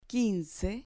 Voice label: "quince", Spanish

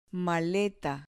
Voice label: "maleta", Spanish

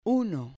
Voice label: "uno", Spanish

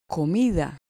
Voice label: "comida", Spanish

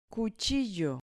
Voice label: "cuchillo", Spanish

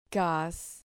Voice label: "cas", Spanish syllable

